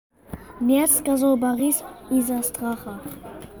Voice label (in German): Sprachmemo